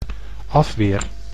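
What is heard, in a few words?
"afweer", Dutch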